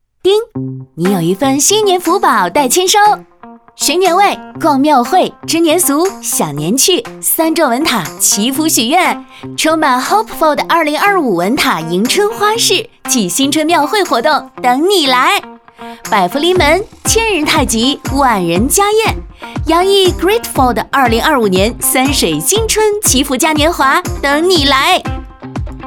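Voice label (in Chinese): 女 活泼热情灵动时尚活力讲述感, 国语配音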